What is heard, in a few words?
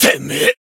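Voice damaged, Japanese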